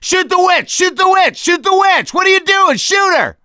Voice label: witch chasing; gambler